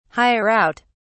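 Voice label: "hire out", British English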